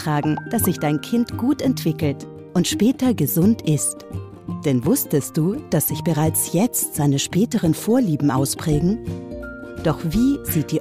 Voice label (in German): erklaervideo richtig essen schwangerschaft